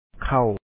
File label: "kha3w", Lao; rice; ເຂົ້າ